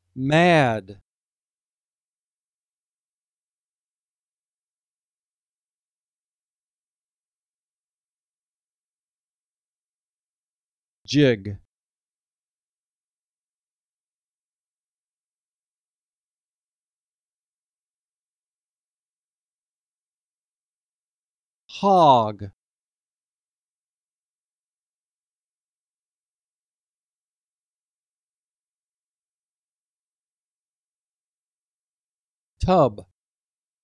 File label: Short Vowel; All We Can Read